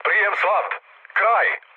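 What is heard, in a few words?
Serbian chat; War Thunder; speech srb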